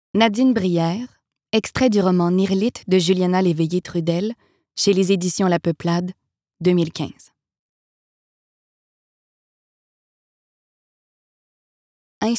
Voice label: livre audio

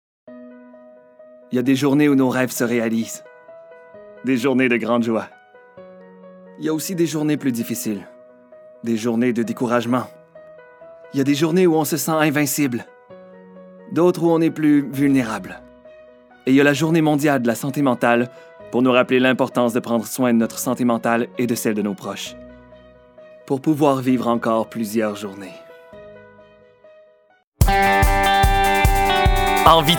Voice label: Annonceur

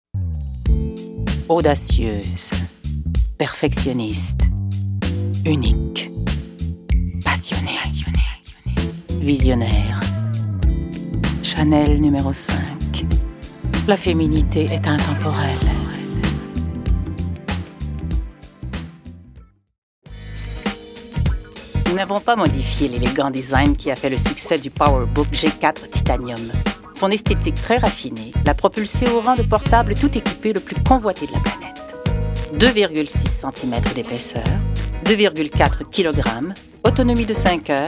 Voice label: pub voix

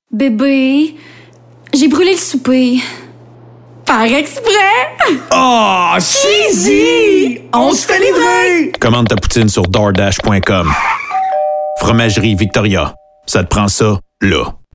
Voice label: annonce

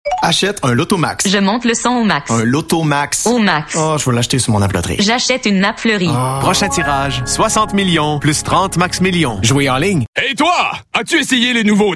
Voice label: Publicite

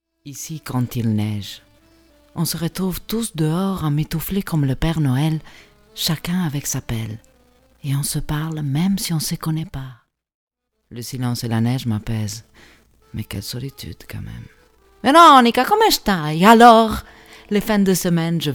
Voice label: voix fr

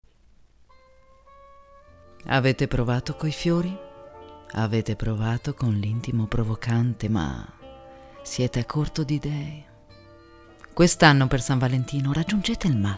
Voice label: voix itali

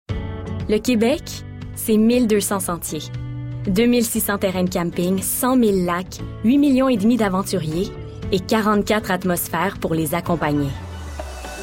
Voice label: Publicite

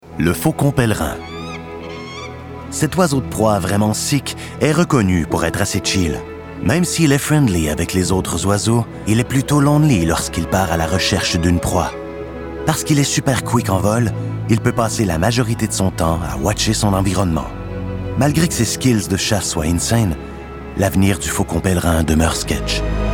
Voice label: Langue francaise; COMÉDIEN VOIX

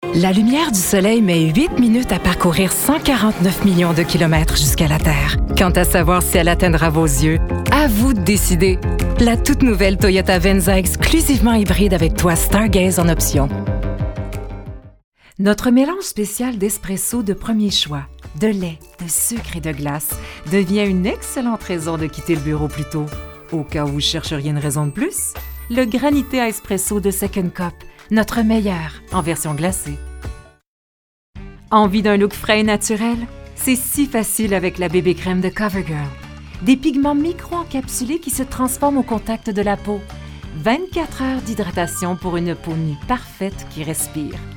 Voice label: Annonceur mixte

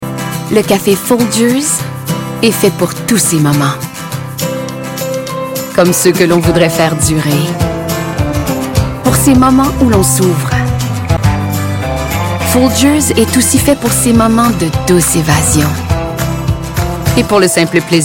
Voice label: annonceurs

